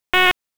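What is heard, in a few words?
Police District Dispatch, p25; wake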